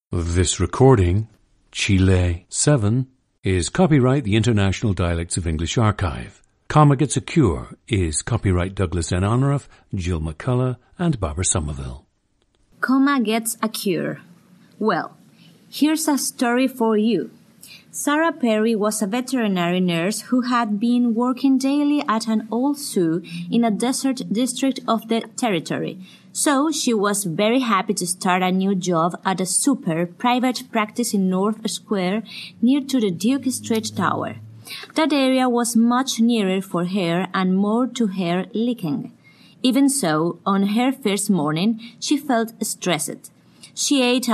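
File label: chile, International Dialects of English; a 31-year-old woman from Santiago, Chile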